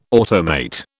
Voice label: "automate", British

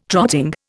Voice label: "trotting", Indian

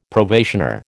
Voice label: "probationer", American

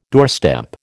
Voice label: "doorstep", American

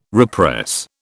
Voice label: "repress", British

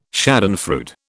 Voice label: "schadenfreude", British

